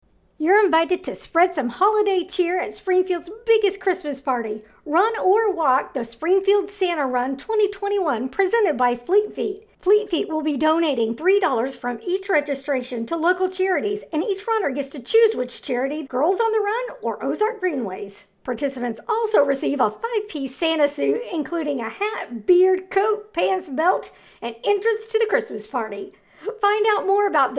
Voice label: Promo; Springfield Santa Run 2021